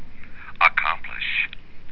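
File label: "accomplish", USA, male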